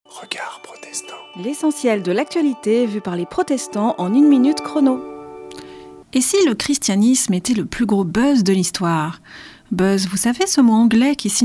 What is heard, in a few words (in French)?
Spot radio; Regards protestants